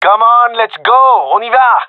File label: round start, gendarmerie male; vo